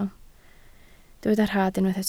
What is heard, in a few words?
"rhad", Welsh